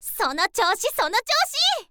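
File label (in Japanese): girl sono tyoushi sono tyousi, Japanese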